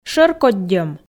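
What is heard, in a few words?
"шӧркоддьӧм", Komi, female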